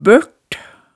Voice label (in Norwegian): "bølt", hemsedalsmaalet tale